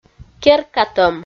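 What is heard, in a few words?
"керкатӧм", Komi, female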